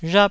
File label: "rhap4", taiwanese